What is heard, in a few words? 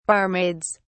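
"barmaids", British English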